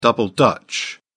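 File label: "double dutch", British English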